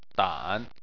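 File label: "dan" third tone, Chinese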